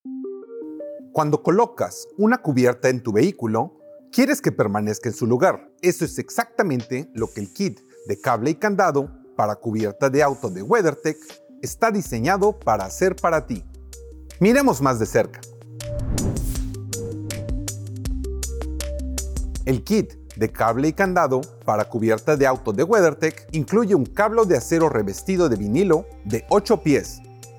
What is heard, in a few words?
Spanish; Car Cover Lock & Cable Kit